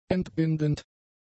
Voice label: "entbindend", German; adjectives